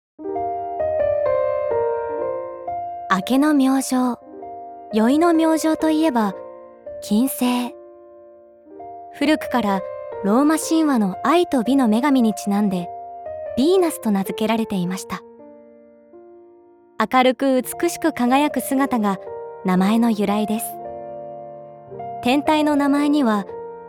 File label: Narration Planetarium